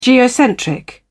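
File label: "geocentric", British English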